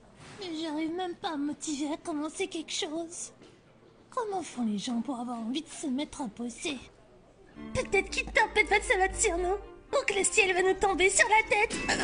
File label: French, Female